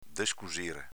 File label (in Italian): "descusire", dialetto veneto; v. scucire